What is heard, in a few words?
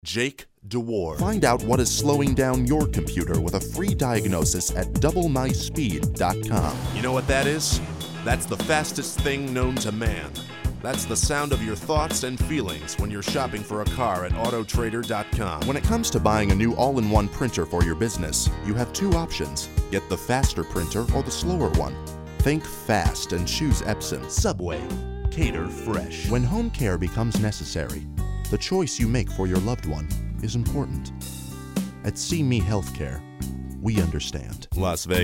commercial